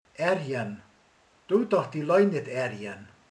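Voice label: "bosszant", Hungarian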